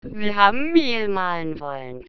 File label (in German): Resynthese "Mehl"